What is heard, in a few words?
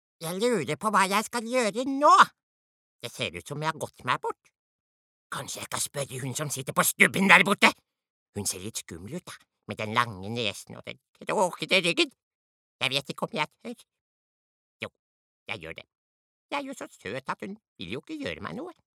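Norwegian dub character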